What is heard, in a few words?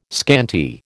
"scanty", American